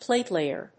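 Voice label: "platelayer", English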